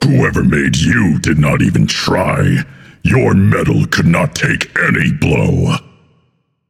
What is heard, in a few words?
taunt directed; janus; Smite; atlas